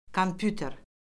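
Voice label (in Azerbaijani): "kompüter", Azerbaijani; Orfoqrafiya Lüğəti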